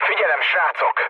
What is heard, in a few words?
near waypoint, mood low, speech hun; War Thunder